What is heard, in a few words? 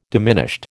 "diminished", American